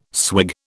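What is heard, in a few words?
"swig", British English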